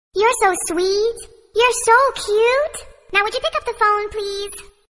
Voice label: you are sweet cute funny tone

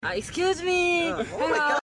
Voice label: excuse me hello short tone ringtone

